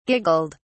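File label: "giggled", British English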